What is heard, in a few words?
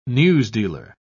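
"newsdealer", British English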